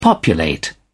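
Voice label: "populate", British English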